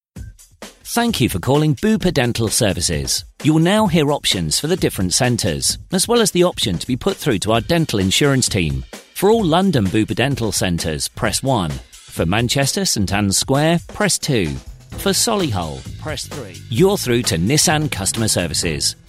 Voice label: male voice, showreel